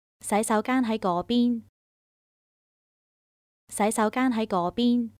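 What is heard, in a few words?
The washroom is over there, Chinese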